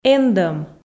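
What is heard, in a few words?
"эндӧм", Komi, female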